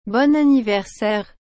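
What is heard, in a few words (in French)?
"bon anniversaire", French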